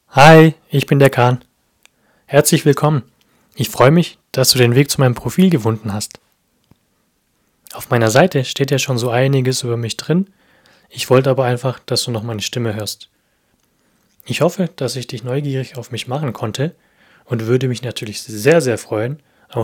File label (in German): Deutsch